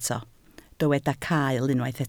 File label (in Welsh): "cael", Welsh